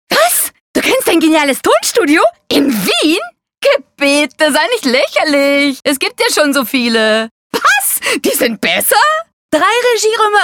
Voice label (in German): W laechelnd